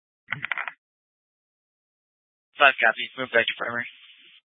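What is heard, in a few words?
Incident Tac 2, Scanner audio recording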